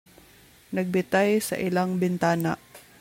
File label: Cebuano